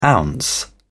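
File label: "ounce", British English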